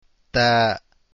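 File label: taa, arabic letters; deen path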